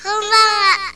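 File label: "konbanwa" Japanese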